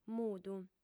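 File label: "mudu", female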